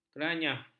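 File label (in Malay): "keranyah", Malay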